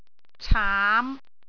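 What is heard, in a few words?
"caam5", Cantonese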